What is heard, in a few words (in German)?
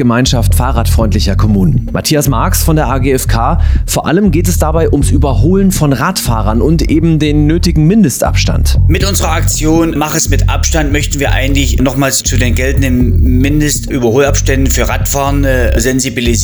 Radio Service Reporter